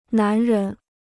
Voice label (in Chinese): "男人", Chinese